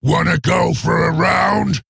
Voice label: Male Orc Play